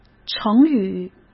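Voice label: "成语", Mandarin Chinese; hsk; chinese flashcards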